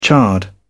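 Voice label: "charred", British English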